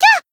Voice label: Voice damaged jp; ciel